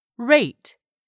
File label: "RATE", English; 3000 words